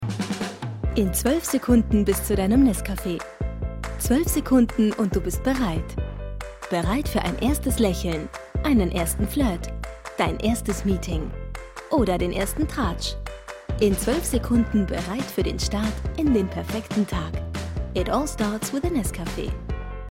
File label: voice, DEU